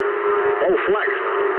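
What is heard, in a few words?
English damaged aa mood low; speech; War Thunder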